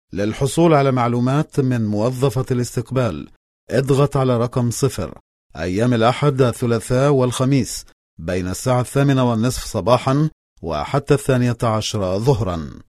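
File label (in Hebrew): Arabic, קריינות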